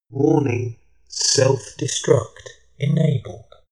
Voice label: shuttle male system status